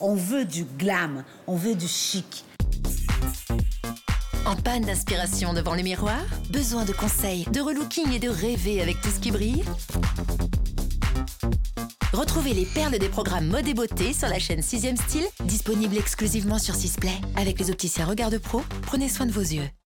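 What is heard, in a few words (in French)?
Ba TV Assuree, voix off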